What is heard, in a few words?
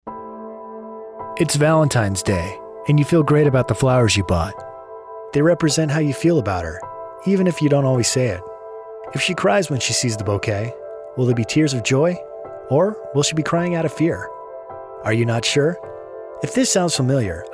Radio Ad